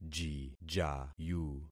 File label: "jijayu", concat syllables; Affixing experiment